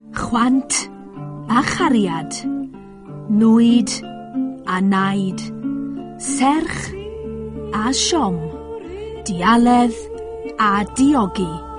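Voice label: Welsh, female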